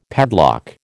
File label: "padlock", American